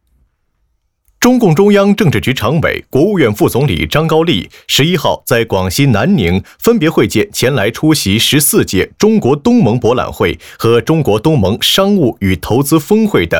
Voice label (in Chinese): 专题 新闻 新闻样音